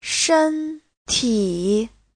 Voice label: "shen1ti3", Chinese